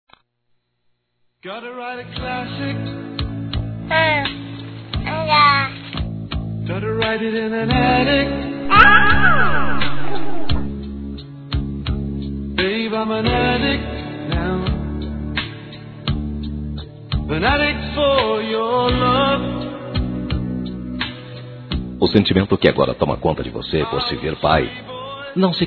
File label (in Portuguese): Parabéns ao Futuro Pai Masculino; Telemensagens; Felicitações; Telemensagens 2017